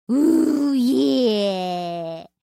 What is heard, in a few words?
oooh, taunt; zh